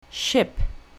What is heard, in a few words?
"ship", English; colour english; means of transport